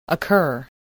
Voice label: "occur", voice, spelling

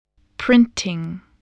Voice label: "printing", voice, spelling

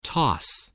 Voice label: "toss", voice

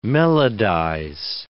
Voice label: "melodize", English

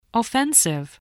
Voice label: "offensive", voice, spelling

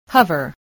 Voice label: "hover", English

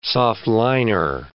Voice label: "soft-liner", english

